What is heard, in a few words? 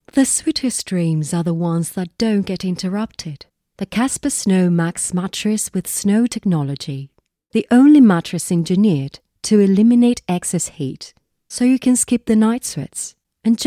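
English British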